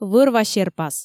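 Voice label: "вӧр-ва серпас", Komi, female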